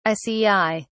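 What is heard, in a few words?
"ici", British English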